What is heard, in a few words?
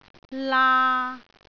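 "laa3", Cantonese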